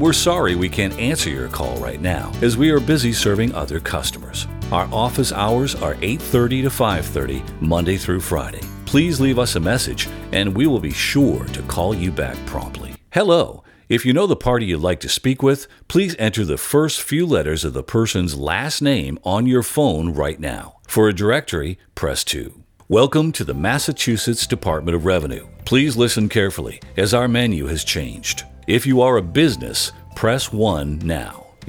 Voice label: On Hold